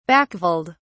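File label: "backveld", British English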